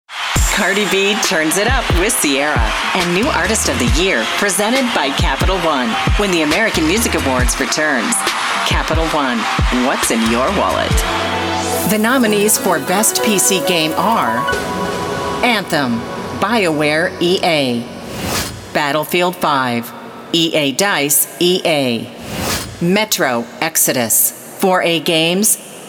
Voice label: Live Announcer